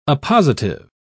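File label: "appositive", British English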